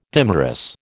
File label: "dimerous", American